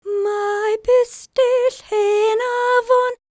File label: WELSH F, World Voices